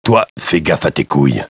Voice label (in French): "couilles", emotison; MSN